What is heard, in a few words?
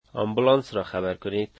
dari Call the ambulance